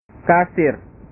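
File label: "kasir", Indonesian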